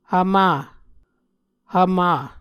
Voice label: "hvmah", Muscogee